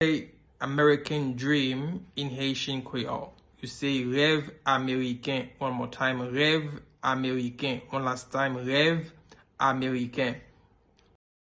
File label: How to say American Dream in Haitian Creole – Rev Ameriken pronunciation